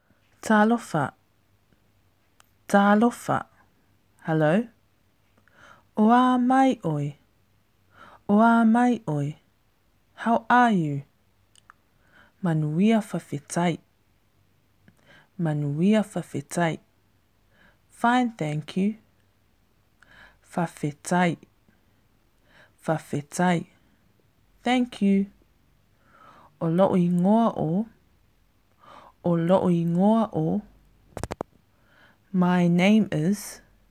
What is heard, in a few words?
samoan greetings